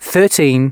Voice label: announcements male

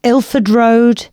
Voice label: announcements, female

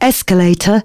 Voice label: female, announcements

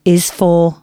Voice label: announcements, female

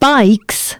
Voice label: female, announcements

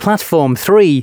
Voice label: announcements, male

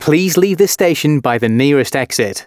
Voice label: announcements, male

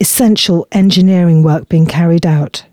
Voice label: announcements, female

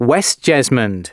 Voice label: announcements male

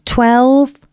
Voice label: announcements female

